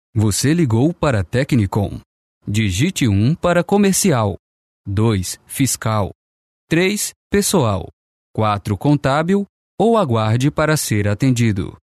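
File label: Brazilian Portuguese, male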